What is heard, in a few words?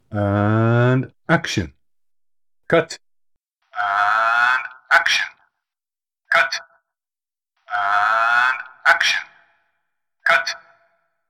Poor Impersonation Before And After Megaphone Effect